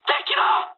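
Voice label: Japanese enemy detected mood med; War Thunder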